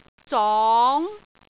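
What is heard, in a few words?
"zong2", Cantonese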